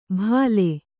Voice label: "ಭಲೆ", Kannada